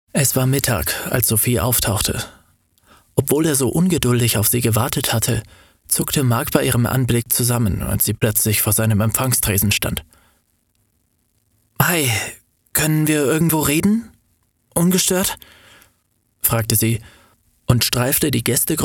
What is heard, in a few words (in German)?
Sprecher Hoerbuch